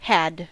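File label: "had" US English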